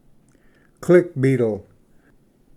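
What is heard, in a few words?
"click beetle", English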